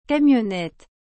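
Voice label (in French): "camionnette", French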